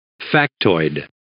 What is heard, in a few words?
"factoid", English